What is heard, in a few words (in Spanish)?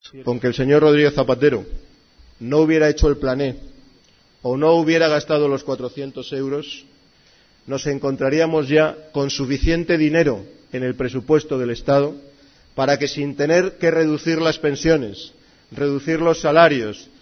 noticias